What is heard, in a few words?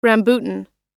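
"rambutan", US English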